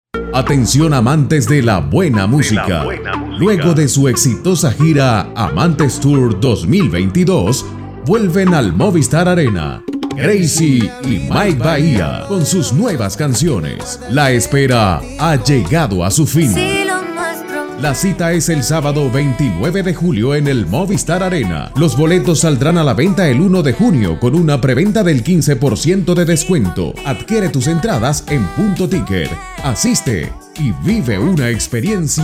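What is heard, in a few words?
generico, Venezuelan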